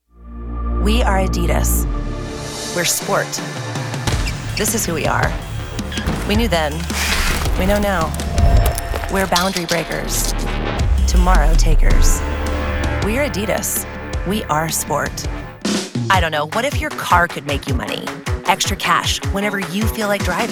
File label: Commercial